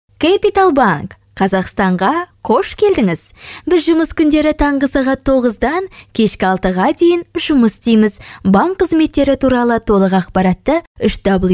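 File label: female Kazakh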